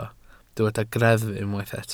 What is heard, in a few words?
"greddf", Welsh